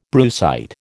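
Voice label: "brucite", American English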